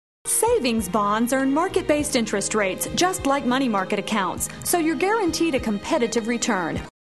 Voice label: Commercial